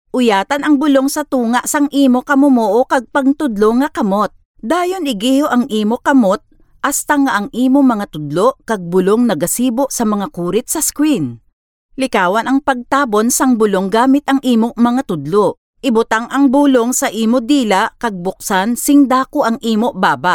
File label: Ilonggo Voice Sample